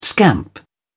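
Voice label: "scamp", British English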